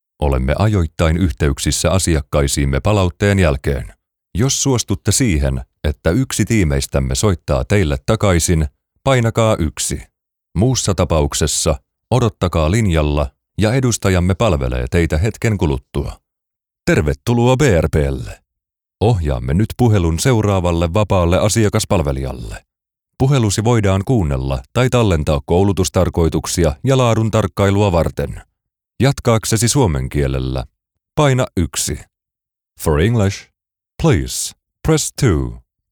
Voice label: Phone Systems IVR On Hold Messages